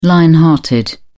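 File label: "lion hearted", British English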